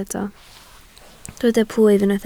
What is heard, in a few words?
"plwyf", Welsh